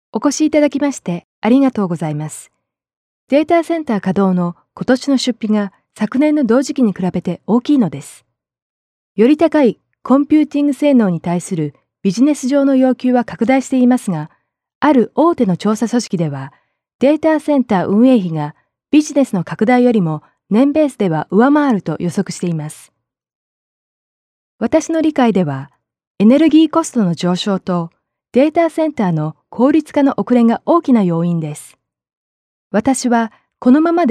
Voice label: Japanese; NIIT